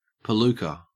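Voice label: "palooka", Australian English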